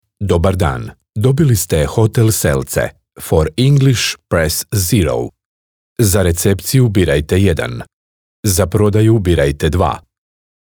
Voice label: IVR